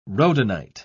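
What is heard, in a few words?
"rhodonite", British English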